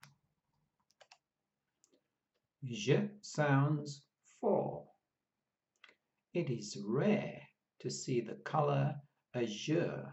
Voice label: Zh sounds, pronunciation of australian english